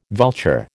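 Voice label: "vulture", American English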